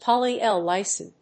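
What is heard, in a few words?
"poly-L-lysine", English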